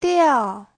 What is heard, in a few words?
"diao4", chinese; 掉; rơi